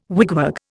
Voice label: "wigwag", Indian